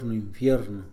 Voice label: "infierno", Mixe, hombre; Religión

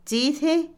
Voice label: Otomí, mujer; beber; Comida; INALI

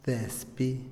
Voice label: brasas, Otomí, hombre; INALI

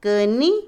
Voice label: velo, Otomí, mujer; Vocabulary; INALI

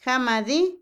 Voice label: Otomí, mujer; gracias; Emociones; INALI

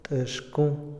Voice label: "teléfono", Otomí, hombre; Moderno; INALI

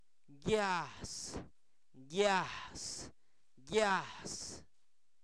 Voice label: Zapoteco Albarradas, santo domingo albarradas; olla; objetos inanimados

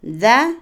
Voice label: Otomí, mujer; ojo; Cuerpo; INALI

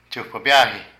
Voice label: Dos veces, Zapoteco de Petapa; Cantidad; Vocabulary

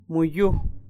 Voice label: "qué", Kumiai; INALI

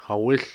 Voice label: "río corriente arroyo", Cucapá

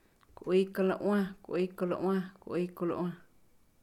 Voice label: "salsa roja", Pame; Comida y bebidas; INALI

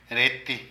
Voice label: Arete, Zapoteco de Petapa; Ropa; Vocabulary

